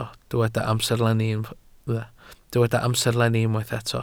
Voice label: "amserlenni", Welsh

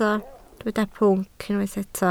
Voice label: "pwnc", Welsh

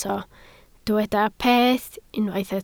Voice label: "peth", Welsh

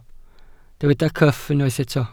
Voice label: "cyff", Welsh

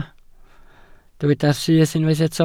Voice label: "syth", Welsh

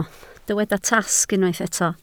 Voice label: "tasg", Welsh

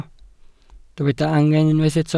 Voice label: "angen", Welsh

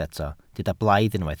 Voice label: "blaidd", Welsh

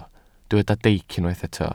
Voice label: "deic", welsh

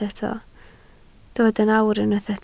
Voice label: "nawr", Welsh

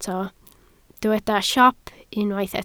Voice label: "siop", Welsh

